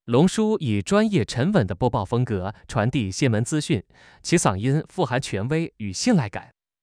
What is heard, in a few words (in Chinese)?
新闻播报, 沉稳青年男; 中、英; longshu v2